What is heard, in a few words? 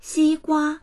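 "xi1gua1", Mandarin Chinese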